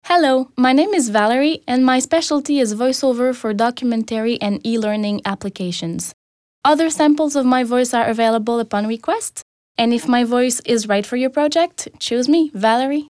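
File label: French Canadian English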